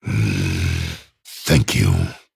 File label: Male Dragon Thanks